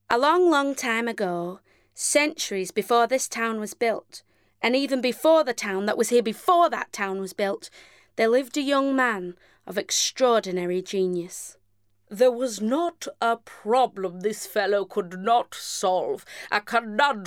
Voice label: animation